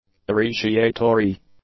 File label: "appreciatory", English; adjectives